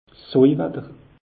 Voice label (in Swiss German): "soij watter", alsace; meteo